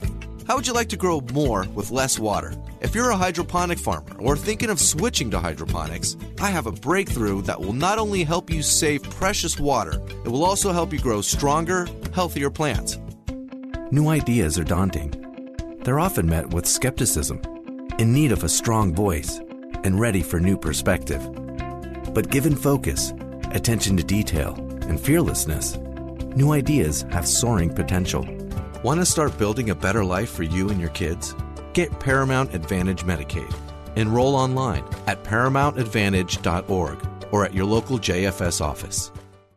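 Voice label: English Received Pronunciation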